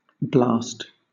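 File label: "blast", British English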